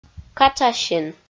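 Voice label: "катшасин", Komi, female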